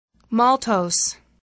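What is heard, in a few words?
"maltose", English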